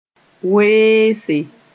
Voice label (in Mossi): "weese", moore